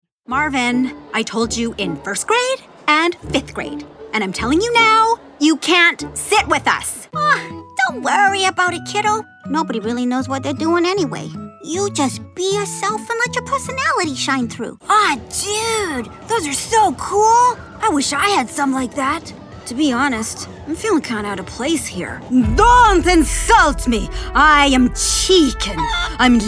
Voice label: Animation Demo Sample; GGRP Demo Samples; Animation Demo Sample 1